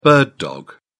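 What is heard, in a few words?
"bird dog", British English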